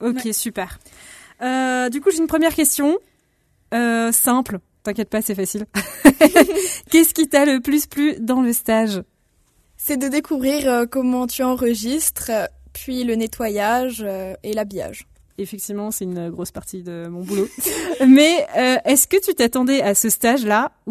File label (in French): Podcast voix off femme